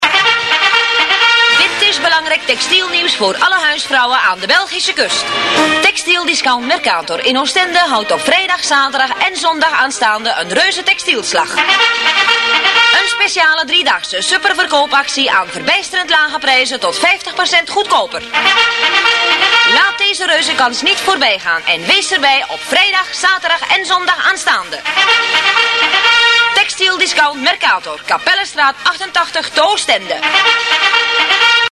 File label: commercial